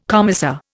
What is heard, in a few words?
"commissar", Indian